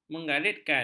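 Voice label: "menggaritkan", Malay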